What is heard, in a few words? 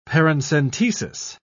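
"paracentesis", British English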